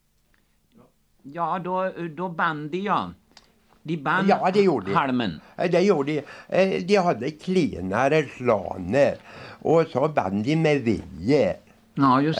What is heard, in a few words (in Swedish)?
Vesene, dialekter; Svenska sagor; Folkminnen